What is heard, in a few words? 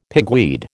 "pigweed", American